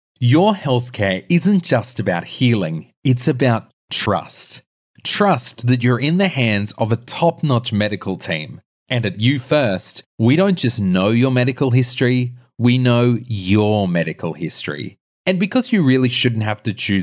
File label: Sincere